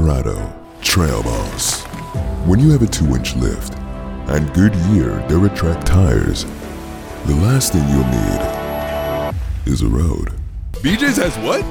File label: Commercial, Haitian American voice talent gifted with a deep voice